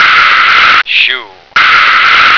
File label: "shoe", American